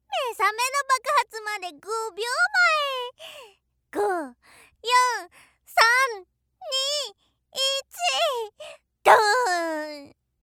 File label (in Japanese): 目覚めの爆発まで5秒前！5,4,3,2,1…ドーン！, 目覚ましボイス